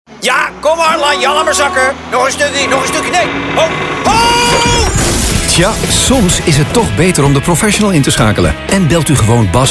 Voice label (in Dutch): radio spotje transport en containers